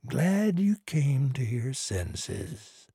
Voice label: trader male neutral quest accepted

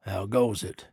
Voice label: trader male like greeting

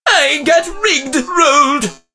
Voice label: meeple hurt; 奥利

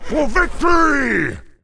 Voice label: Warlord war cry

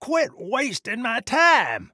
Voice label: trader male neutral sale declined

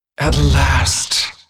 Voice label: hero female demon hunter morphed yes, en

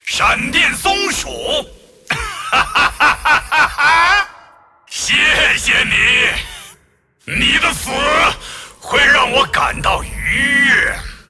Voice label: move, zh

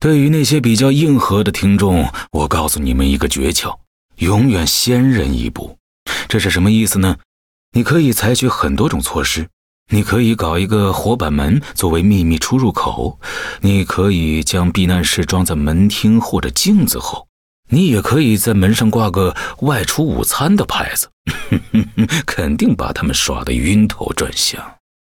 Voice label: Mandarin; 哈里森; 双点校园; 开场白

